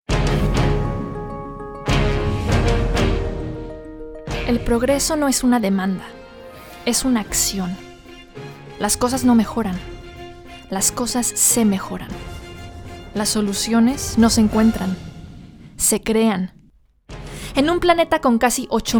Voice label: female spanish mexican neutral spanish latam narration; Devine Voices